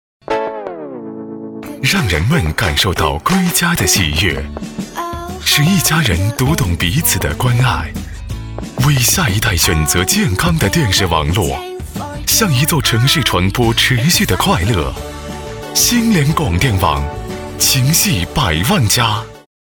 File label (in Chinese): 广告 品牌形象 电视网络 时尚